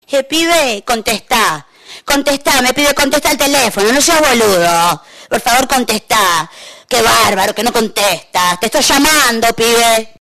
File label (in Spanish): argentino